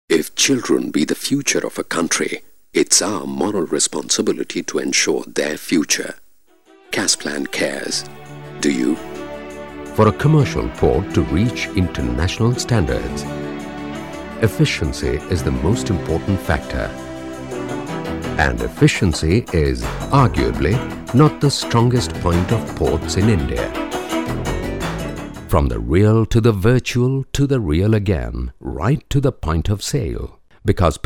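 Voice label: English Indian Male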